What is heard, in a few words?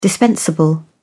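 "dispensable", English, British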